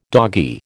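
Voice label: "doggy", American English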